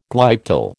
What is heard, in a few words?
"glyptal", American